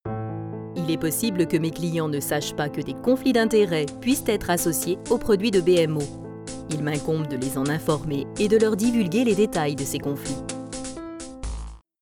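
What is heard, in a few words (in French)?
Présentation produit, voice; BMO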